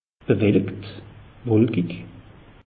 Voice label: "bewellikt", alsace; meteo

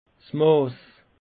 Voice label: "mass", alsace; peche

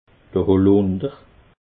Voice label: "holunder", alsace

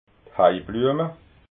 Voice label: "heibluema", alsace

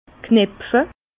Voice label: "knepfe", Bas Rhin; vin; nouer

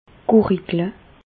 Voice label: "gurigle", Bas Rhin; medecine; gargariser

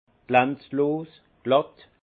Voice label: "glanzlos", alsace; vin; mat

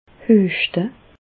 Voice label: "hueschte", Bas Rhin; medecine; tousser

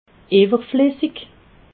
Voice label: "iwwerflessig", alsace

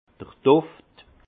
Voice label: "duft", Alsace; le parfum; vin

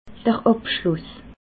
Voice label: "abschluss", alsace

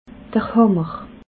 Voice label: "hammer", alsace

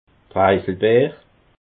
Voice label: "preiselbeer", alsace

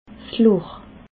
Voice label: "loch", alsace

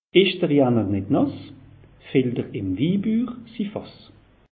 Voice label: "janner", alsace; meteo

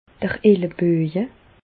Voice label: "elleboje", Alsace, Bas Rhin; medecine; coude

